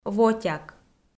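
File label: "вотяк", Komi, female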